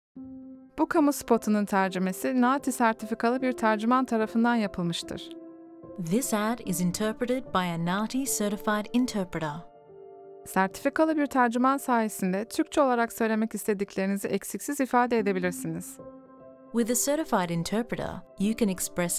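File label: Turkish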